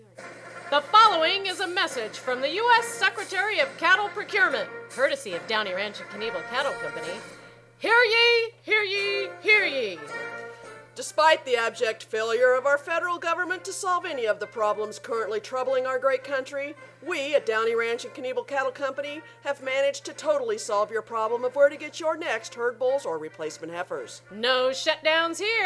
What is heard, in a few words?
political ad